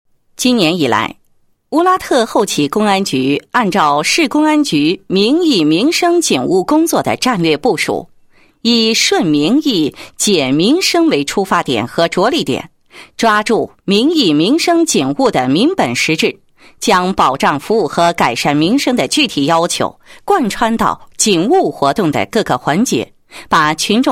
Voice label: 女 公安 纪实; 开展民意民生警务工作纪实

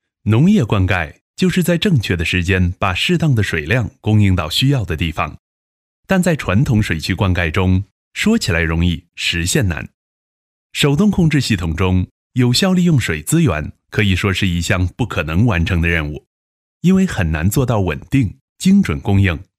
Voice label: 英男 纪录片 农业灌溉 汉语

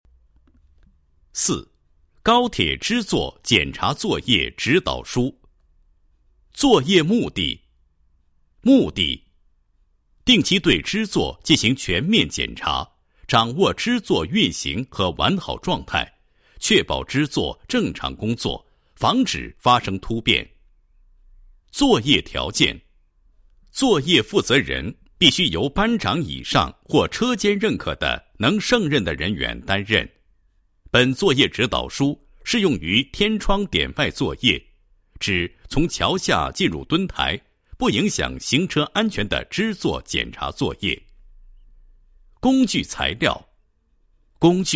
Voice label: 男 课件; 高铁支座检查作业指导书

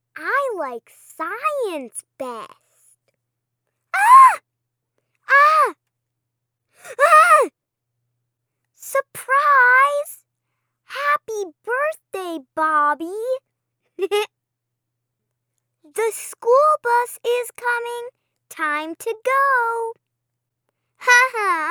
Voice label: 外籍童声女, 教材录音

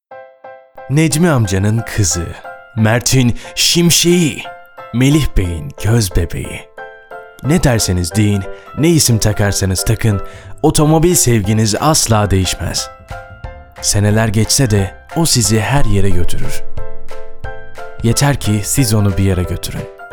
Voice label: 土耳其语男 广告 轻快

